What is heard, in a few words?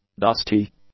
"dusty", English; adjectives